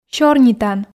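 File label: "сёрнитан", Komi, female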